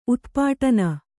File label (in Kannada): "ಉತ್ಪಾಟನ", Kannada